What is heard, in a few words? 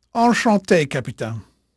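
French male, voice; Pirates Of The Caribbean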